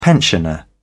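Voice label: "pensioner", English, British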